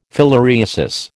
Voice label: "filariasis", American

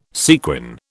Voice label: "sequin", British

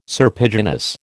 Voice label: "serpiginous", American

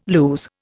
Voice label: "lose", Indian

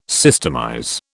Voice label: "systemize", British

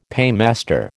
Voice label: "paymaster", American

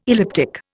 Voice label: "elliptic", Indian

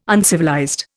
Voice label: "uncivilized", Indian

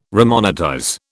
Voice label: "remonetize", British

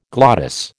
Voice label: "glottis", American

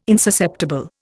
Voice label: "insusceptible", Indian

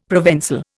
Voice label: "ProvenÇal", Indian; Dictionary

